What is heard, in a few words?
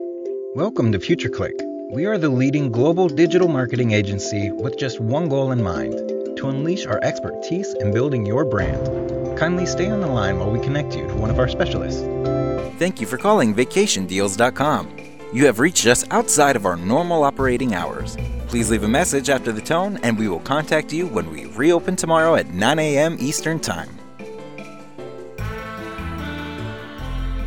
phone system